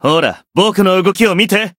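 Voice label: limit break, Japanese; nero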